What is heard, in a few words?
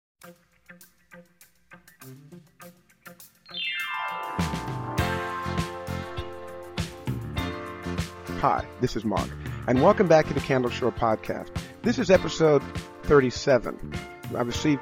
iPhone VoiceOver Text Editing; podcasts